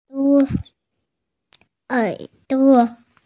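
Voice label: "er duo" Chinese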